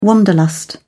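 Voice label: "wanderlust", English, British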